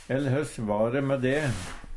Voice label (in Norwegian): ell høss va, Numedalsmål